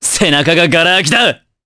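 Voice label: Vox Skill Japanese; kingsraid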